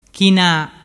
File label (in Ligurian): "china", dialettu